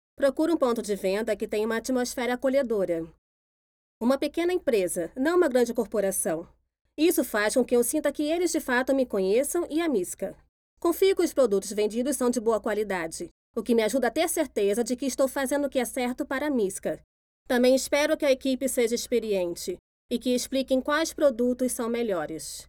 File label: brazilian f narration, portuguese bra voice; voice demos